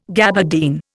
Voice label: "gabardine", Indian